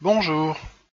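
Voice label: "bonjour", French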